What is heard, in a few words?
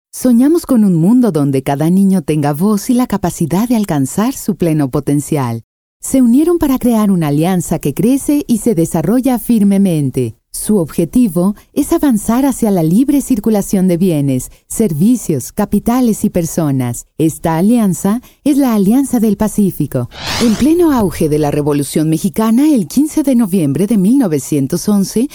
spanish voice over female narrator